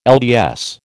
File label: "LDS", American